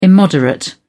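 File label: "immoderate", British English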